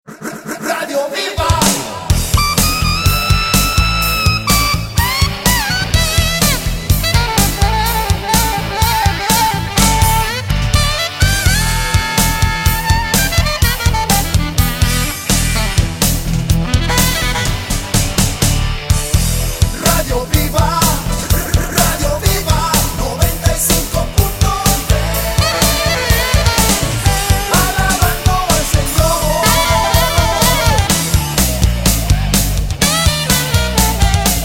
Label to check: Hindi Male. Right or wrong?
Wrong